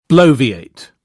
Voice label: "bloviate", British